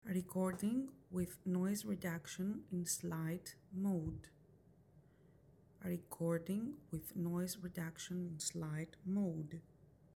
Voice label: Noise Reduction Slight Mode, wireless lavalier microphone; maono ai wave t5